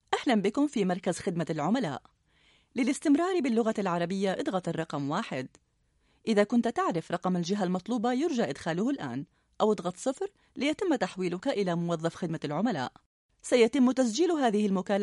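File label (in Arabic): Arabic female IVR; voice over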